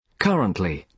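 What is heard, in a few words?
"currently", British English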